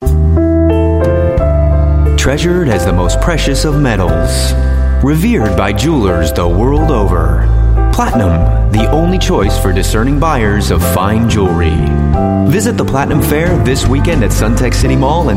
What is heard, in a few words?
Jewelry Fair Radio, English US Male